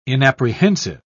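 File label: "inapprehensive", British English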